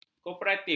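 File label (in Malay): "koperatif", Malay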